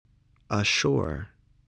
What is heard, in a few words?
"ashore", English